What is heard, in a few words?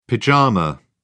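"pyjama", British English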